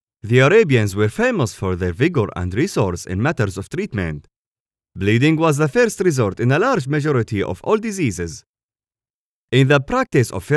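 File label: Arabic English male Documentary; english in foreign accent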